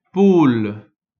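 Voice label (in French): "poule", French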